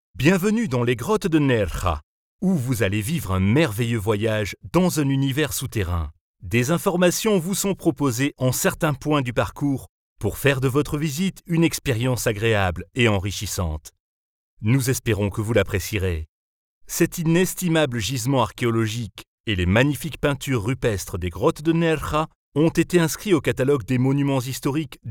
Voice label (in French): voix grave Audioguide; Nerja Acces